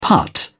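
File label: "putt", British English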